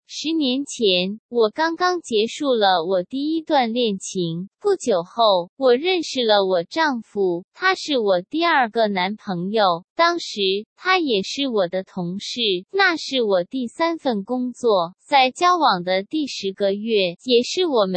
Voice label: Chinese Essay with Chinese Ordinal Numbers, Mandarin; first second third